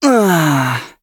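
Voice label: hit, English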